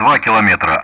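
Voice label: Speech Sound Russian Common Player; DCS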